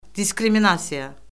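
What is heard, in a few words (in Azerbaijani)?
"diskriminasiya", Azerbaijani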